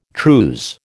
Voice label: "trews", American